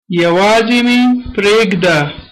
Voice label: leave me alone, pashto